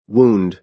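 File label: "wound", American English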